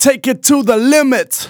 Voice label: Phrase Male Rap; Vengeance Samples